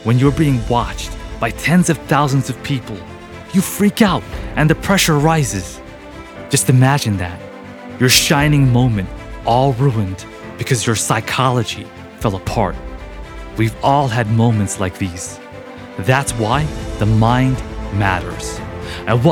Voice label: American accent with music